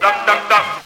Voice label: "duh duh duh", voice; ooh ahh